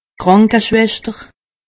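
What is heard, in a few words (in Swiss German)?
"krankeschwester", Haut Rhin; medecine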